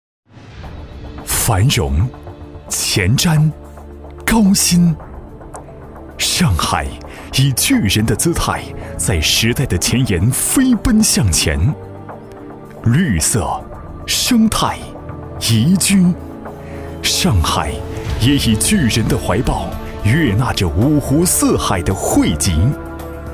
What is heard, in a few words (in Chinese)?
男 专题 激情, 浑厚大气宣传片配音; 五年回顾